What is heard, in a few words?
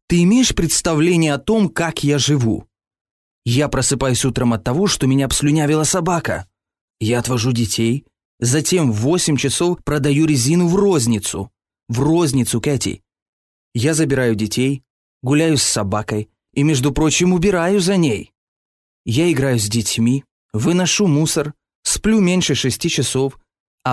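Russian Russia male upbeat; russian voice over talent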